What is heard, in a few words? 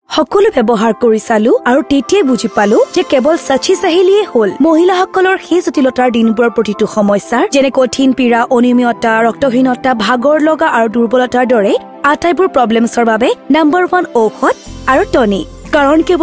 Assamese female